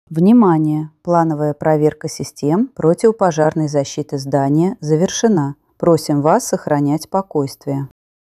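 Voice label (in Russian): оповещение проверка систем окончание; rechevye soobshcheniya soue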